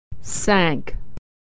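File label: "sank", American English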